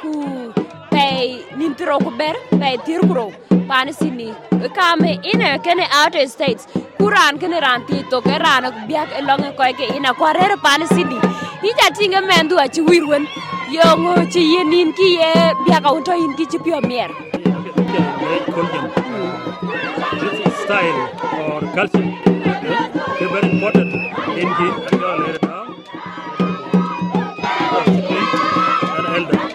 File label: dinka, podcast; SBS Dinka